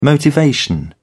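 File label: "motivation", British English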